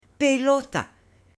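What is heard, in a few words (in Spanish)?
"pelota", Spanish, female; JUGUETES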